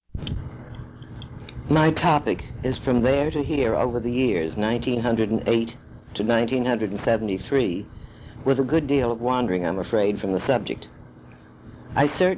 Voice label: Noise Removed, Oral History